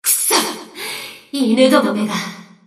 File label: Enemy Voice Damaged Under Attack; Non-Treaty Cruiser Water Princess